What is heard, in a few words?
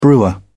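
"brewer", English, British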